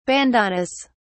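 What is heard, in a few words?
"bandanas", British English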